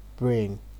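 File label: "brain", Nigerian Pidgin, male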